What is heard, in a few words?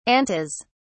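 "antas", British English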